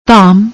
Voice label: "dham", Punjabi; ਧਾਮ